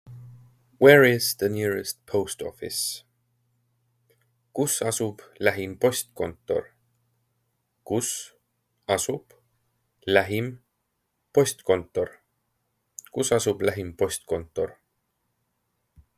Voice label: Where is the nearest post office, Estonian phrasebook; the talking estonian phrasebook